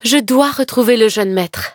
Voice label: defeat, fr; cookie run